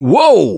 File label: Ledge Hang Slip, russian, Survivor Voice; Gambler